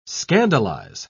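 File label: "scandalise", British English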